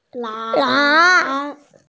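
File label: "lang2", Mandarin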